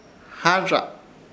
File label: "Harrza", Ishir Chamacoco; plaza de reremonia